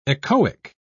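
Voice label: "echoic", British English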